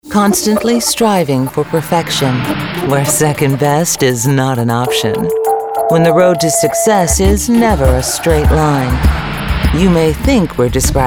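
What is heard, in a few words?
Advertisement women English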